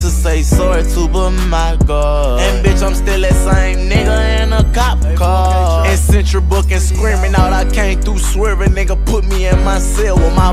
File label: Jamaican Talk